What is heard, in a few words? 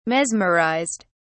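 "mesmerized", British English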